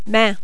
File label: "maint", French